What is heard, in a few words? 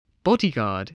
"bodyguard", spelling